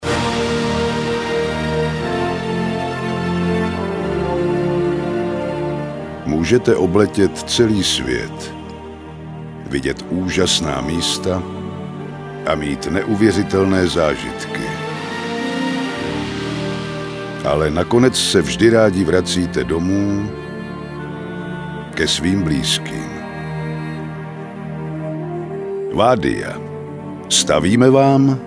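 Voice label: Male Czech commercial mix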